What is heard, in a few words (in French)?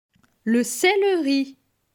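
"Le celeri", French; vocabulaire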